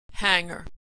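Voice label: "hanger", American English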